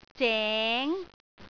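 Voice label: "zeng2", Cantonese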